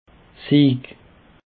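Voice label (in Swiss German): "sieg", Alsatian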